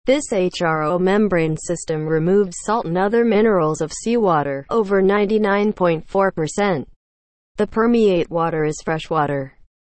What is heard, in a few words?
english, seawater desalination; watercompany